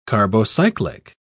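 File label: "carbocyclic", English, British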